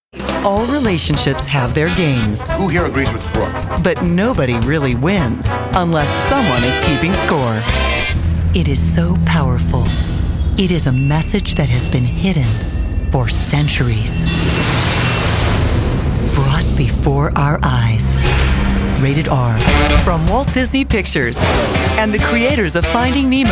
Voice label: VO Trailers